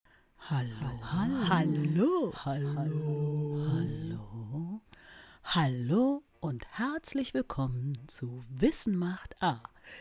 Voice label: Voiceover Intro